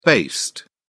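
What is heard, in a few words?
"based", British English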